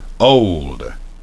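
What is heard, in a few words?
"old", English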